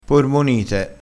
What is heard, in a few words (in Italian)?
"pormonite", crespinese, vocabolario dialettale